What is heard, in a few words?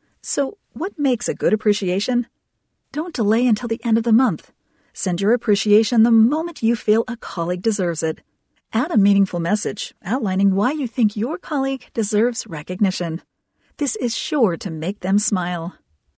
Appreciation eLearning